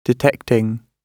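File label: "detecting", British English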